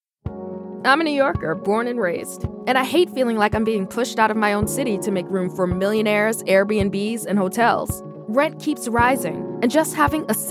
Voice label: english american political ads